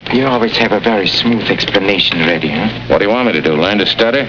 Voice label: stutter